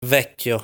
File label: "Vecchio", Italian